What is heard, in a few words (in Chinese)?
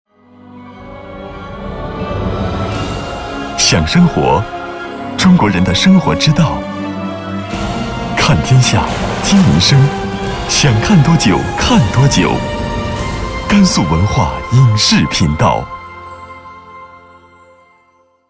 男 文化影视频道; 甘肃文化影视频道